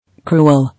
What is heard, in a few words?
"Cruel" English